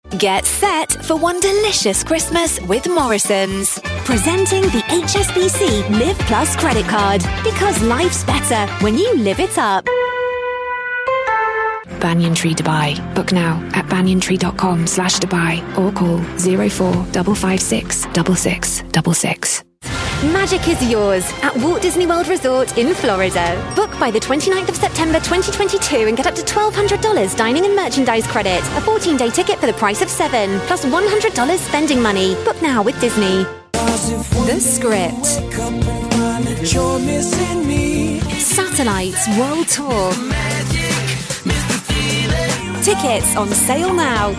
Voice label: brand portfolio, Professional and Versatile Voiceover